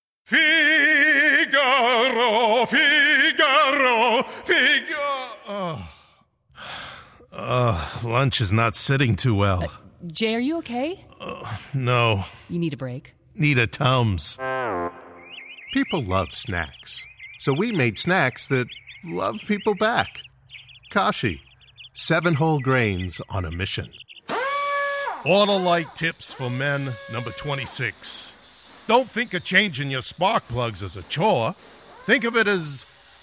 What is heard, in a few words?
Commercial